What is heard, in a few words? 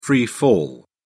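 "free fall", British English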